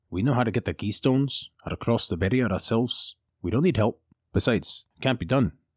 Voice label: Highlander warrior NPC with bold presence, fierce determination, and heroic spirit, Audiobook NPC; Genovalio